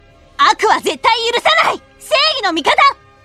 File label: female voice; xenoverse 2